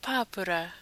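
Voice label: "pāpura", Māori word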